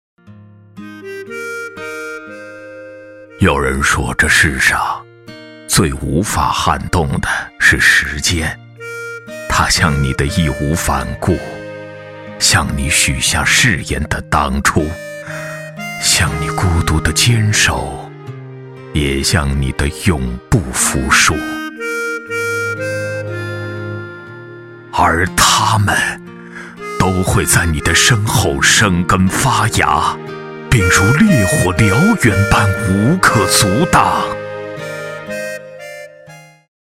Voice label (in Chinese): 男 老红军 回忆叙述低沉磁性